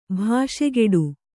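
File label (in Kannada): "ಭಾಷೆಗೆಡು", Kannada; bhāṣegeḍu; to break one's promise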